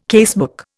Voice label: "casebook", Indian